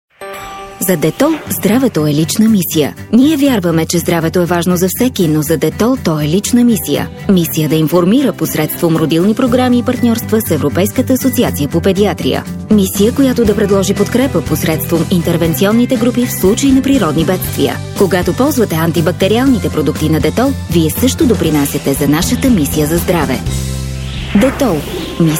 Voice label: Bulgarca Kadin; seslendirme

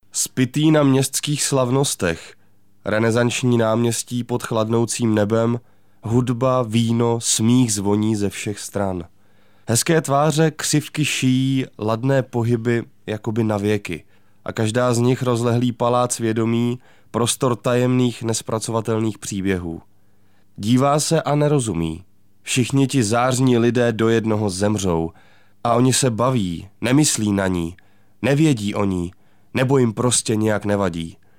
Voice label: Cekce Erkek, Erkek Ses

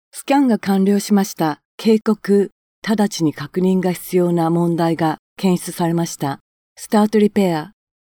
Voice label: Japonca Kadin; seslendirme

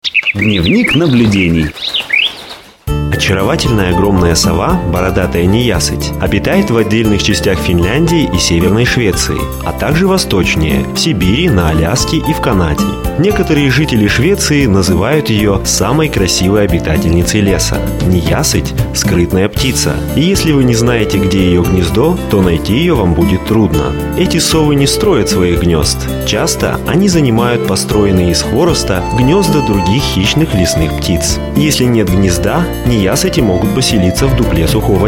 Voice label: Rusca Erkek, Seslendirme, Erkek Ses

